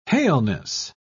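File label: "haleness", British English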